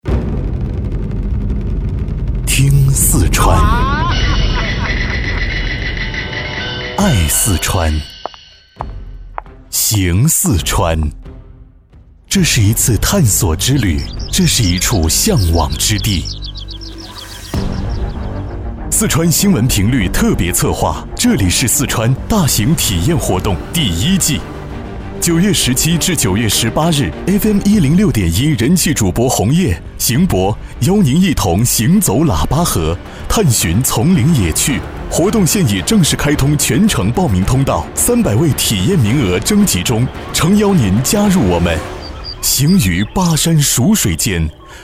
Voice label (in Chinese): 电台台宣; 听四川